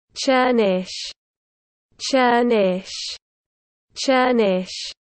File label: "Chernysh", russian dog names